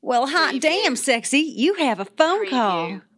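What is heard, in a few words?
Female Sexy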